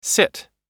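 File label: "sit", US English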